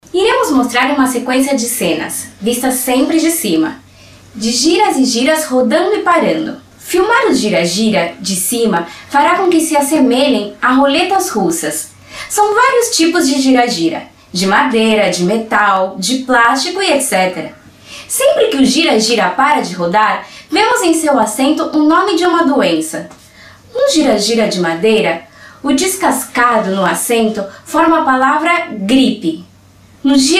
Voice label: Mujeres Portugues; Casting Impulse

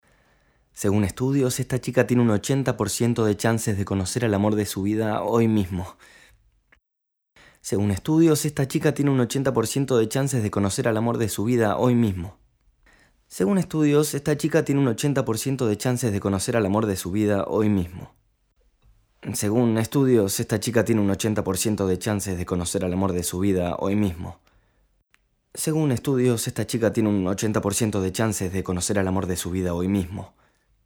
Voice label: Tradu Crud, Espanol

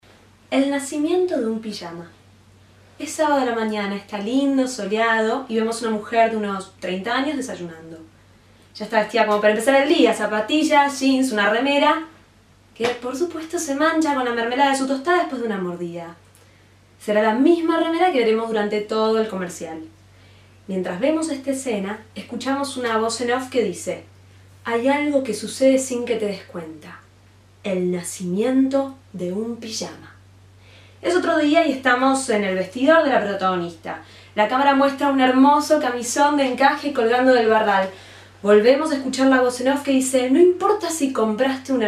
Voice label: Mujeres; Casting Impulse

